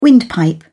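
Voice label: "windpipe", British English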